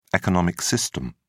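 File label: "economic system", British English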